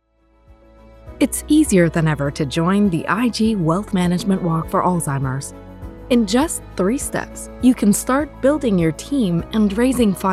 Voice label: Explainer